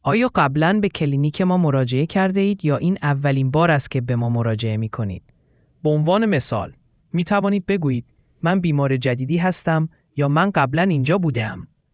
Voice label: persian